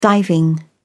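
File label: "diving", British English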